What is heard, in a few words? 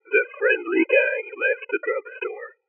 sinewave speech